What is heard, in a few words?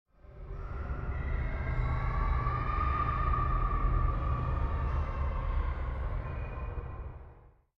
Children Talking In Heavy Reverb Eerie Drone Short; Hollywood Edge; sound designer's toolkit v2